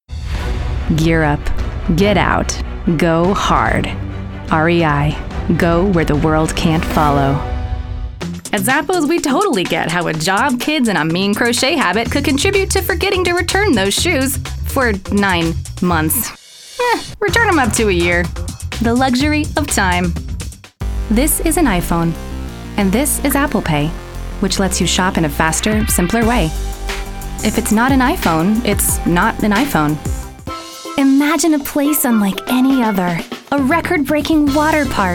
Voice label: Commercial